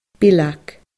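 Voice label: "bileag", Scottish Gaelic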